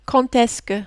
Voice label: "quand est ce que", French

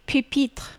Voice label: "pupitre", French

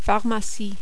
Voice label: "pharmacie", French

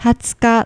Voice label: "hatsuka", Japanese

